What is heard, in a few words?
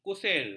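"kusir", Malay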